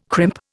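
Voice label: "crimp", Indian English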